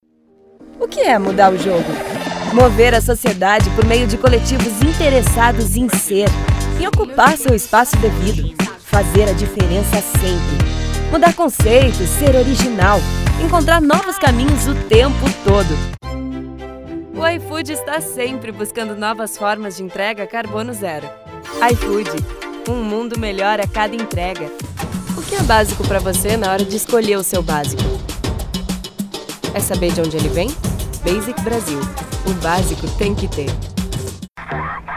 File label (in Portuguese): VOZ NATURAL CONVERSADA